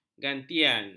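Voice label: "gantian", Malay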